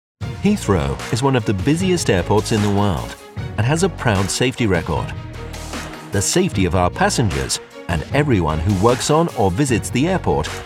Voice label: male english e learning compilation